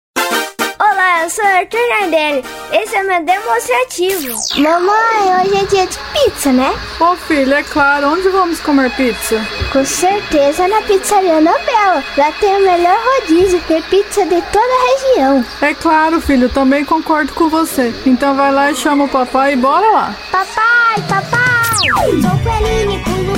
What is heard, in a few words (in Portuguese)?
INFANTIL VOZ